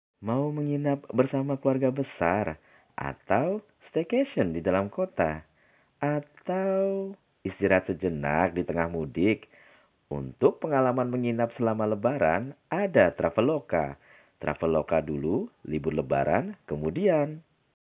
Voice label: casual Bahasa voices; Summer Voices